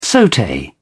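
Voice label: "saute", English, British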